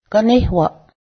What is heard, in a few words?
leather, Cayuga; ganéhwaˀ